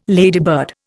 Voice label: "ladybird", Indian